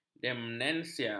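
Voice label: "demensia", Malay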